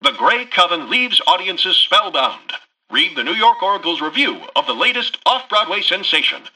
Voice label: Newscaster headline